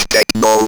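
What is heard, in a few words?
ROBVOX, robot voice; E-Lab; X Static Goldmine 1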